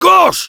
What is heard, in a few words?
head left, fr; "Gauche!"; Heavy